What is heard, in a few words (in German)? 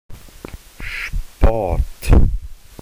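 "Sport", German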